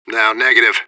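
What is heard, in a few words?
radio bot response negative; fbihrt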